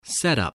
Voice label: "setup", spelling